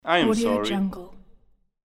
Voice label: male voice saying im sorry

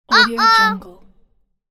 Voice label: kid voice saying "uh oh"

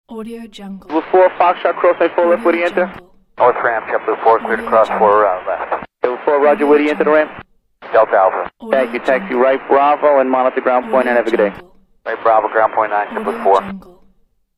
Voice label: aircraft radio; digital harmony

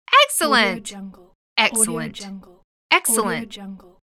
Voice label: female saying "excellent"

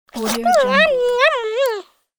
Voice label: cartoon tiny yummy voice

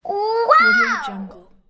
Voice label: girl wow

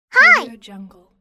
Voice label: cartoon girl "hi"

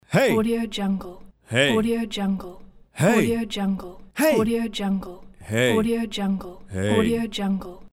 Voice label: man hey

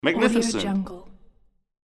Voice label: male voice saying magnificent

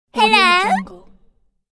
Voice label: cartoon woman hello

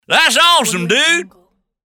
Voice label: hillbilly that's awesome dude

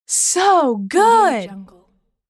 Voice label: female voice so good

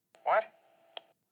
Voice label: intercom vox male futzed yeah what alright

